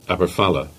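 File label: "Falmouth" Cornish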